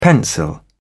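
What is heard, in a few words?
"pencil", English, British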